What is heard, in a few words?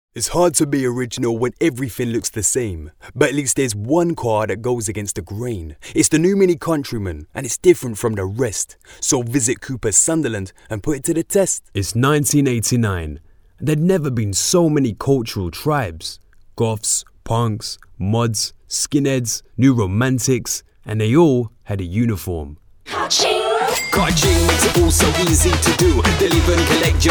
english british tv shows